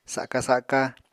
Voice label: "saka-saka", Apatani